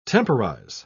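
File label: "temporise", British English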